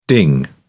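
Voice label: "ding", British English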